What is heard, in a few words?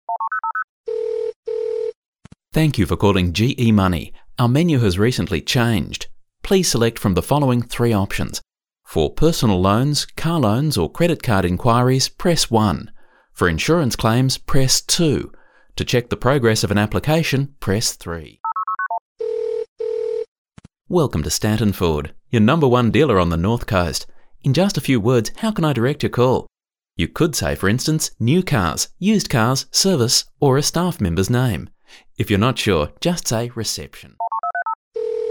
IVR